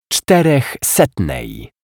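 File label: "czterechsetnej", Polish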